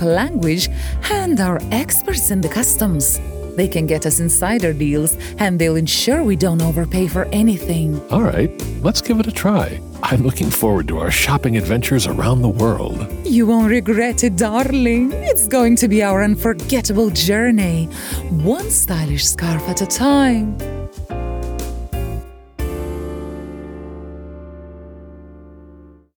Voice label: female Lithuanian English with Lithuanian accent role play